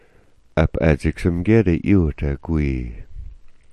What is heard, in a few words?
"'apadziksmgyet", smalgyax